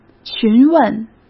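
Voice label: "询问", Mandarin Chinese; chinese-flashcards; hsk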